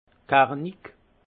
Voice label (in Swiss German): "kernig", alsace; vin; robuste